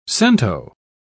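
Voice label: "cento", British English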